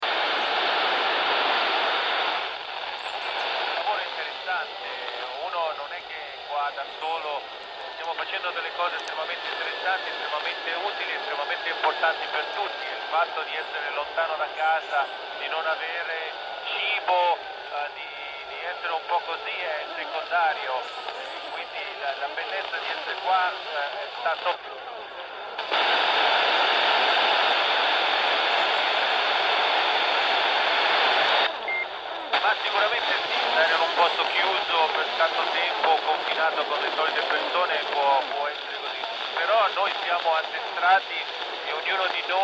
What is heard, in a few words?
ISS 145 800MHz FM; spazio; Nespoli